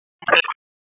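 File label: Raleigh Police Northeast District Tactical, p25; wake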